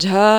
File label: "jha", marathi